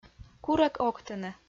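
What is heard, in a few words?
"курӧк октыны", Komi, female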